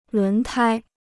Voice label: "轮胎", Chinese